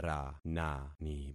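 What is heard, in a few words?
"ranani", concatenated syllables; Affixing experiment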